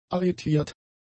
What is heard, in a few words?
"arretiert", German; adjectives